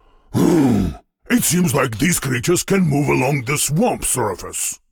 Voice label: Voice Monolog; We Are the Dwarves